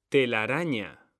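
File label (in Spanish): "telaraña", Spanish; mekanta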